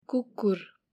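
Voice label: "kukur" dog, Nepali; domestic and wild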